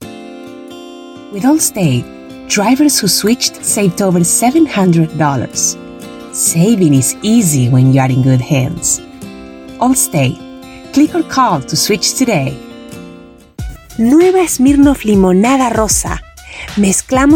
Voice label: Commercial Bilingual Spanish